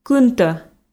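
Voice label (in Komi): "кынтӧ", Komi, female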